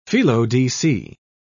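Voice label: "felo-de-se", British English, dictionary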